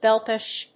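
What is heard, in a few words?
"bellpush", English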